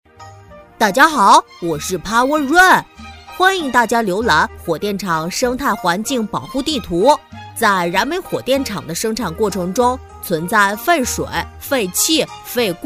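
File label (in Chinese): 女-男童【7-8岁】; 华润电力小润; 可爱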